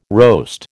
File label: "roast", American